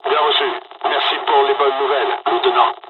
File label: dialog, dialogs french; War Thunder